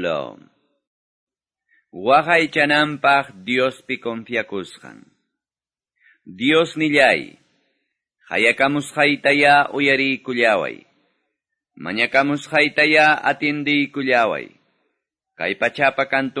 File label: salmos, quechua ayacucho